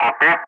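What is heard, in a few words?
"copy", English; Ka-50; Wingman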